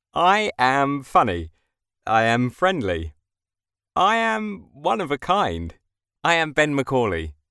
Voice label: men mini demo